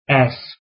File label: alphabet s, afrikaans